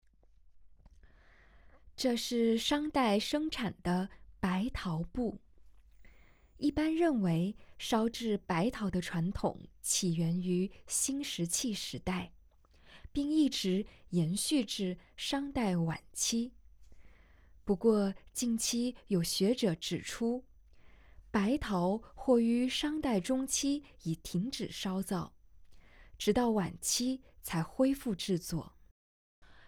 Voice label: Putonghua